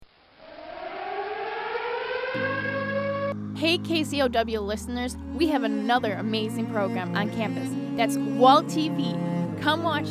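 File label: radio station promo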